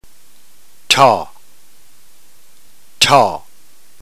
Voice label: "tau", Greek